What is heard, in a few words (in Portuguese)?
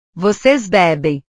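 "vocês bebem", Portuguese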